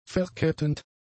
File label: "verkettend", German; adjectives